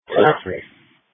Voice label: Police Dispatch